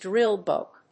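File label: "drill book", English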